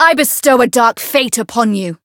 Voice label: Voice variable chant; toova

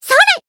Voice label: Voice attack, jp

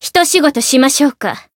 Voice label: Voice jp

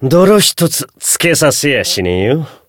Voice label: variable chant, jp

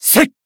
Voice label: Voice attack jp

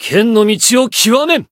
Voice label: growth, jp; shion

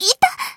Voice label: damaged jp

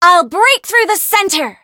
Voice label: variable chant

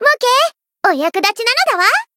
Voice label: voice jp; thillelille

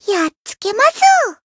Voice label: encount, jp

